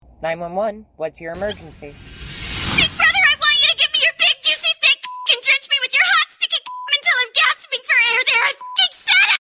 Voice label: really sus 911 call